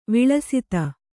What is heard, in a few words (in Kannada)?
"ವಿಳಸಿತ", Kannada